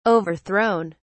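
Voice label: "overthrown", English, British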